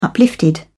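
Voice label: "uplifted", British English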